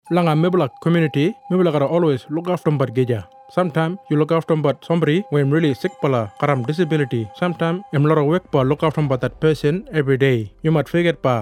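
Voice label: Northern Kriol, radio; Carer Gateway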